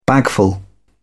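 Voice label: "bagful", British English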